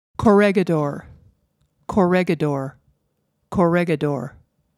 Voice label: Corrigador American